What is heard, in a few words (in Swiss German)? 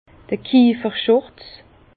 "kieferschurz", Bas Rhin; vin; tablier de tonnelier